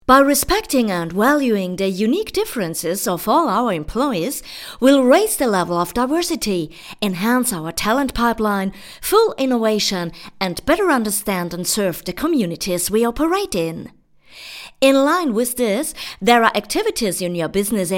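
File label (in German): US English Werbung Freundlich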